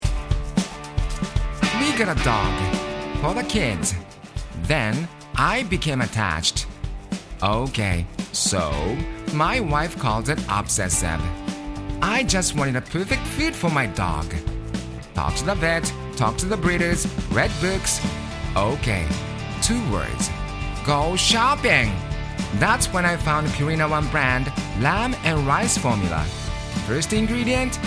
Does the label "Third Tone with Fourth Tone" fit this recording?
No